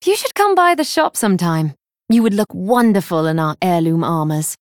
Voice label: Female Night Elf